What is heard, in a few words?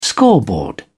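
"scoreboard", British English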